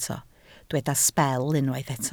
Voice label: "sbel", Welsh